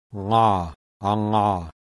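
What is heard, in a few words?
voiced uvular nasal; IPA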